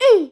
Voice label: boy escape; Wii